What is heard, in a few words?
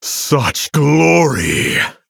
Male Human WOW